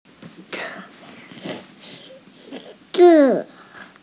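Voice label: "zi4", Mandarin Chinese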